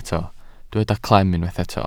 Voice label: "clem", Welsh